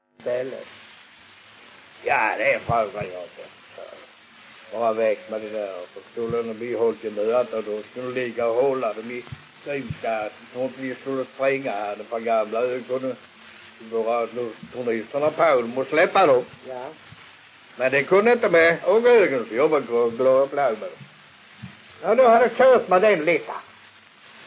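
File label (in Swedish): Allerum Skåne, Svenska sagor dialekter; Folkminnen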